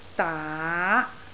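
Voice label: "daa2", Cantonese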